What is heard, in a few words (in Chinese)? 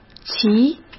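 "齐", Mandarin Chinese; hsk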